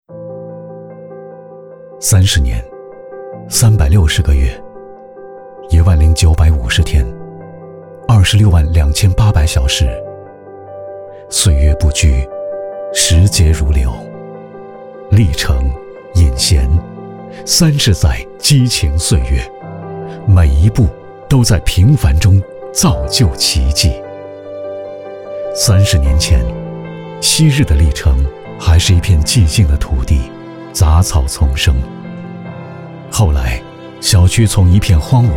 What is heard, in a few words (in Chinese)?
年会宣传娓娓道来; 引贤年